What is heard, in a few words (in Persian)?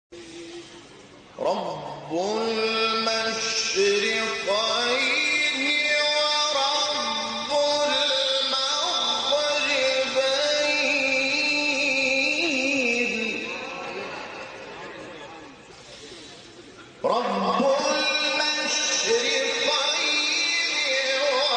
تلاوت سوره الرحمن; محمود شحات انور